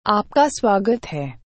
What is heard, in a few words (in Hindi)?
"Aapaka Svaagat Hai", Welcome